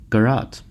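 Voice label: "garrote", American English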